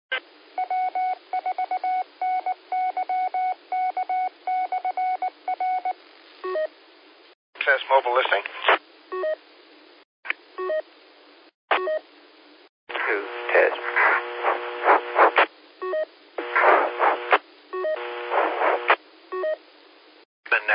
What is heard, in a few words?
qso rec simplex logic